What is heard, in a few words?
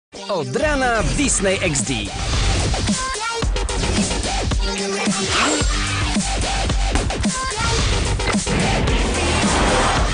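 Polish, Commercial; GoLocalise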